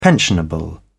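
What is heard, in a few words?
"pensionable", English, British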